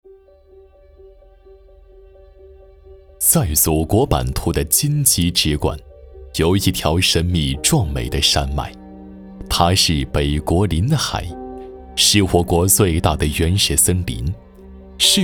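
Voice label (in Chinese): 男【纪录片】; 大兴安岭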